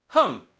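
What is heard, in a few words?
Voice Acting Spanish, male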